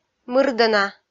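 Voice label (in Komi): "мырдӧна", Komi, female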